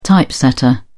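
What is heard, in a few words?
"typesetter", English, British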